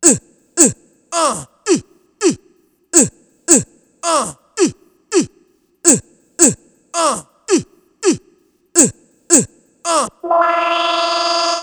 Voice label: Vox FX; Sound FX